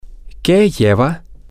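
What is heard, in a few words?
whats in it, spanish, male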